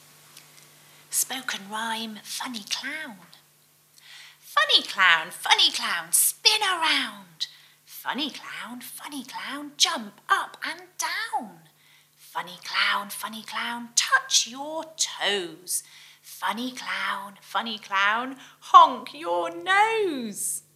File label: Spoken Funny Clown